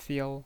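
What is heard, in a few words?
"fiao" Mandarin Chinese, first tone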